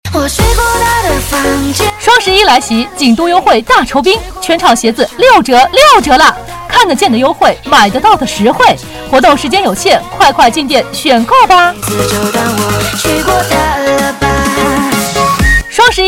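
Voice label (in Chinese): 女促销 优惠大酬宾; 锦都优惠大酬宾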